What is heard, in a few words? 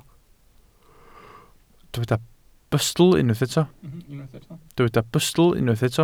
"bustl", welsh